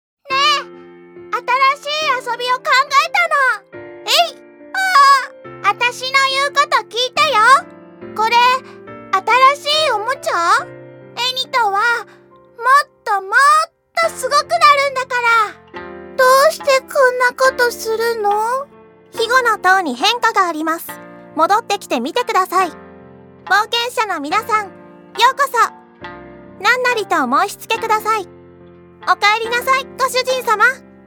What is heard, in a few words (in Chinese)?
萝莉正太 日语 女